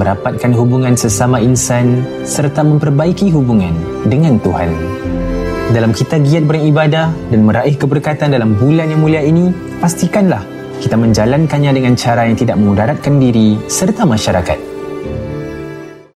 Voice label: Malay Ramadan Ad